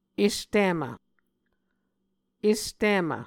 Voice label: "estimv", Muscogee